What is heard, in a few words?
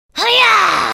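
voice portugues brasileiro; kennen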